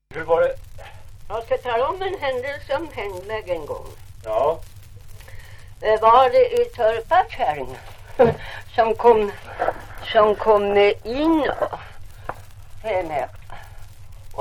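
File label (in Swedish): Ovansjö Gästrikland, Svenska sagor, dialekter; Folkminnen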